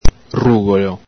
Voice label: "rugolo", Venetian

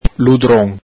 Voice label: "ludron", Venetian

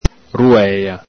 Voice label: "ruela", Venetian

